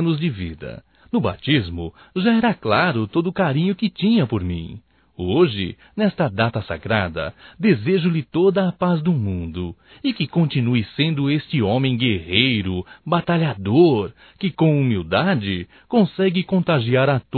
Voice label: NATAL PADRINHO, masc; Natal e ano novo; Telemensagens 2017

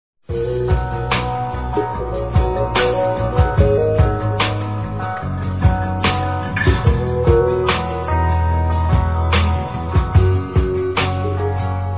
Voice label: ANIV. DE FILHO ADOTIVO (M); Telemensagens 2017; Aniversários; ANIVERSARIO ADOTIVOS

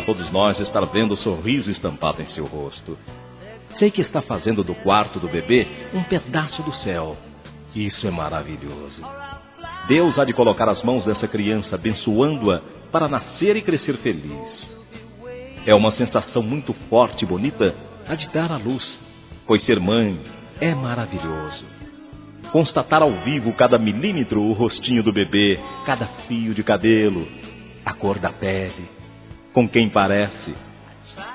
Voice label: Parabéns Futura Mamãe Masculino; Telemensagens; Felicitações; Telemensagens 2017

